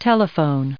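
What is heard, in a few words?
"telephone", English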